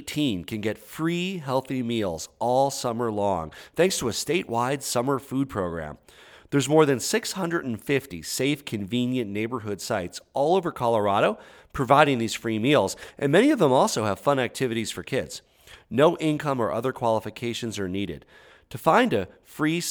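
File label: Robo call English; nutrition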